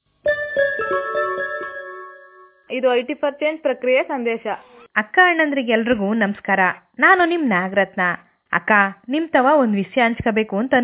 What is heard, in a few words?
IVR